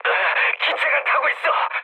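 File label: Korean on fire mood low; speech; War Thunder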